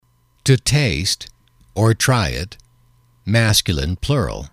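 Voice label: to taste try it, English, male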